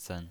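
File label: "zen" Mandarin Chinese pinyin voice, neutral tone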